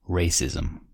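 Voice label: "racism", US English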